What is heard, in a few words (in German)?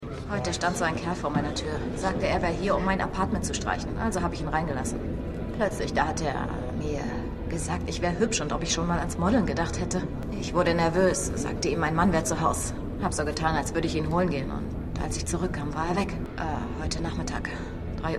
Junge Bewohnerin; Boston Strangler